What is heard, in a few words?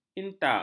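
"inta", Malay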